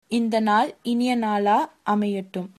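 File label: have a nice day, Tamil, female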